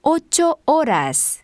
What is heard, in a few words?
spanish 9 hour